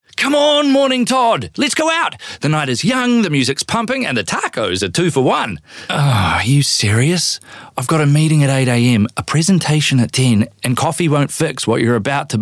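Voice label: NZ English Voice Sample, New Zealand English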